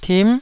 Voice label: "tim9", taiwanese Syllables